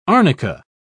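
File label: "arnica", British English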